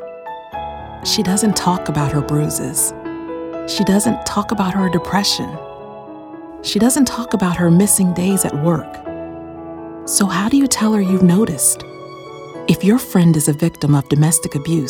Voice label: PSA Domestic Violence

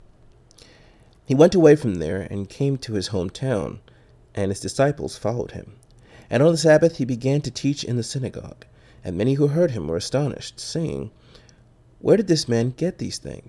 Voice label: Bible reading (book reading)

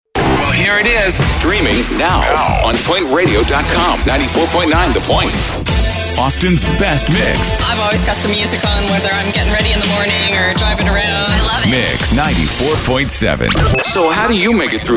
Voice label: Radio Imaging Demo

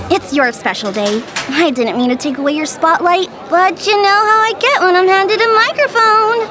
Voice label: Cheeky girl

